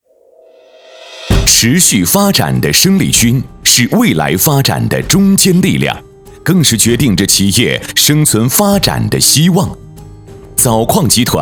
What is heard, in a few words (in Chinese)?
男 专题 厚重激情 物流; 枣矿